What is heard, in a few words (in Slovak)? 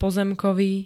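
"pozemkový", Slovenské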